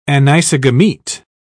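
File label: "anisogamete", British English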